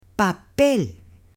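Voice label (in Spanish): "papel", Spanish, female; UTENSILIOS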